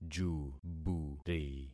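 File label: "juburi", concatenated syllables; Affixing experiment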